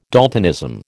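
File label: "daltonism", American English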